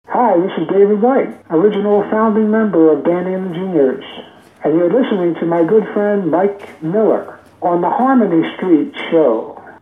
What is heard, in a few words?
promo